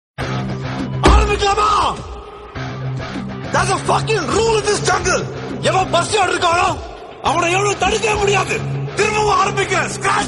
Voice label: dialogue tamil; rolex; vikram; suriya